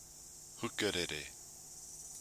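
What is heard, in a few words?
"hukarere", Māori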